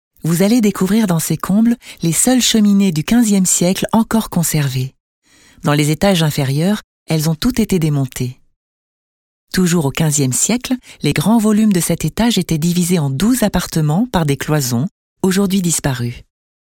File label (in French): Audioguide; Chateau Bauge; chemines